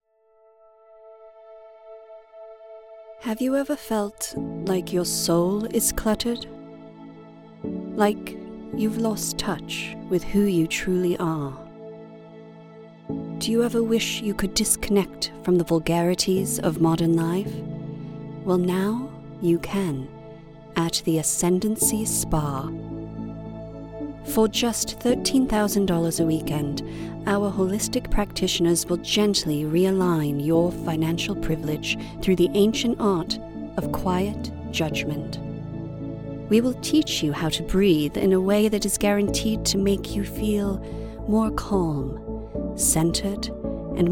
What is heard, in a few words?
Spa Ad parody ad